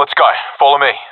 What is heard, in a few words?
request follow me, professional epic; vo; Counter Strike Model Pack; CS:GO